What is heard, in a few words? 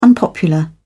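"unpopular", British English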